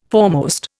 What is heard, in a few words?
"foremost", Indian